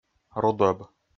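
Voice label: "рудӧб", Komi, male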